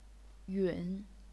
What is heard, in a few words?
"yun3", chinese pinyin